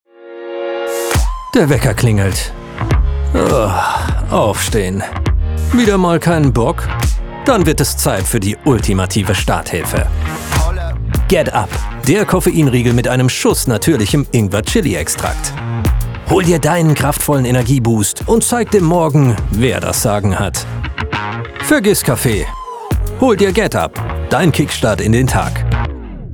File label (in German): werbespot, sprecher; getup